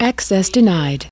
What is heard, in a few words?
US female access-denied; lynne publishing